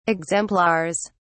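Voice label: "exemplars", British English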